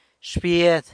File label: "sa bied", San Lucas Quiaviní; aunt (poss. form)